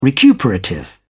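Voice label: "recuperative", British English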